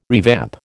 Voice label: "revamp", American